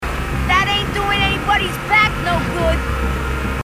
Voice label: female marine

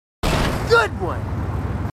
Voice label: marines, marine timid; h2dialogue

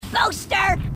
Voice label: grunt; h2dialogue; grunt crazy

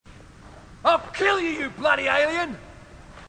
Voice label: marine, aussie; h2dialogue

